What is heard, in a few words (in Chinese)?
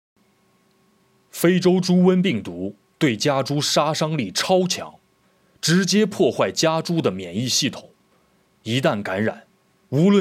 广告 配音